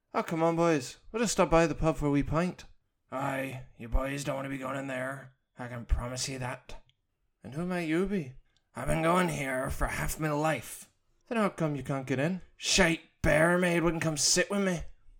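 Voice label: Voice Over Irish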